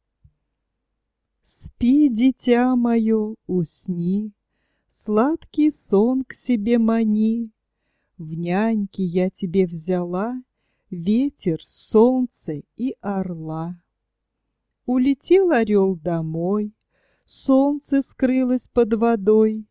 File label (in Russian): колыбельная песня; Майков